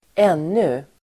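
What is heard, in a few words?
"ännu", Swedish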